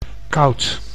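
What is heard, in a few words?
"koud", Dutch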